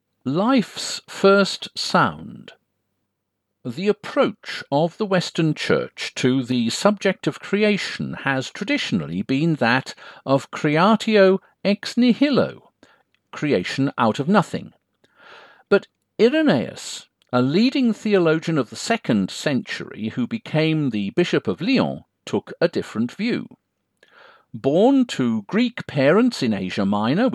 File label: Audiobook